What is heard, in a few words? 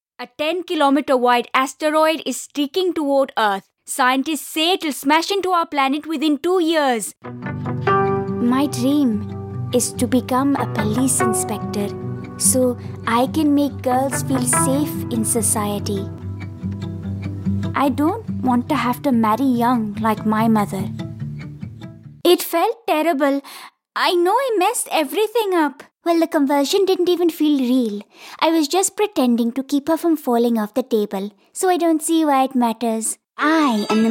indian english